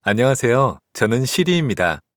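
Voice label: Korean, Korea; iOS 26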